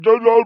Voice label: Male Talk